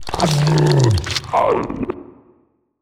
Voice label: portrait synthetic; Stellaris; machineage